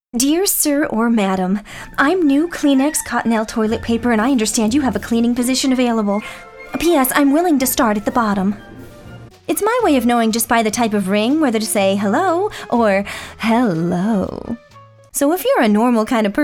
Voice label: Commercials Spokesperson